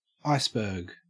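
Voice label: "iceberg", Australian English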